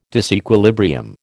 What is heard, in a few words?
"disequilibrium", American